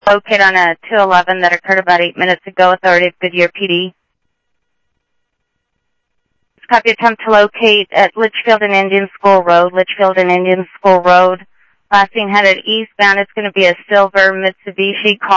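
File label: Sheriff West County, scanner audio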